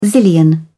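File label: "zillion", British English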